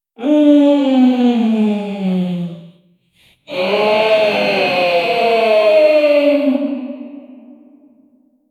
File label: shocked voice